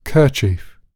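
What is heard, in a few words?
"kerchief", British English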